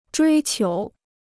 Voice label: "追求", Chinese